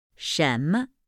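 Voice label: "什么", Chinese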